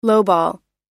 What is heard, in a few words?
"lowball", pronounce